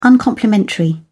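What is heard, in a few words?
"uncomplimentary", British English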